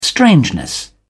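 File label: "strangeness", British English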